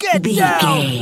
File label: voice male soldier get down